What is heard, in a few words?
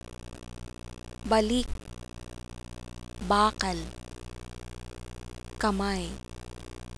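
voiceless k, Tagalog consonants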